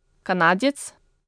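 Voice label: Canadian, male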